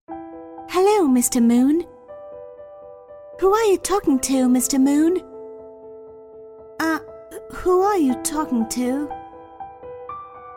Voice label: child voice